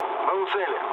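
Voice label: near waypoint, mood low, Russian; War Thunder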